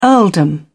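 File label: "earldom", British English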